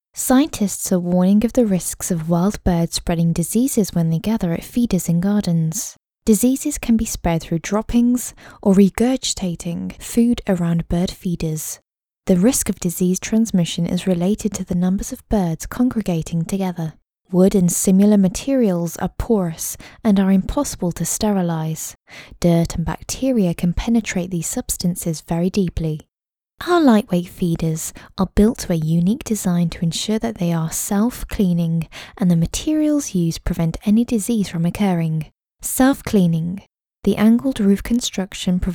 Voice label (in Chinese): 外籍英女 英式 叙述